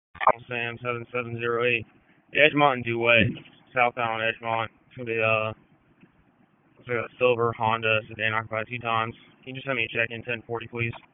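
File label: Sheriff's Office Dispatch; WSO DISP 1; wake; p25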